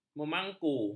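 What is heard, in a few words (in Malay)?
"memangku", Malay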